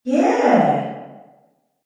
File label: "Yeah" With Reverb Female Voice; recorded voice